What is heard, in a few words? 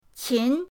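"qin", Mandarin pinyin, second tone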